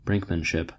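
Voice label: "brinkmanship", US English; pronunciations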